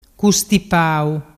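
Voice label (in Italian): "custipau", Vernazzese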